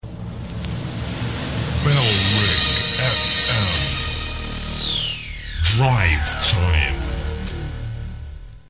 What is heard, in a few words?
drivetime (male, bed), jingles; bfm; bailrigg fm; bfm jingles ads RSL 1994